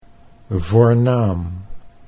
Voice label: "voornaam", Dutch